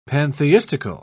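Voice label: "pantheistical", British English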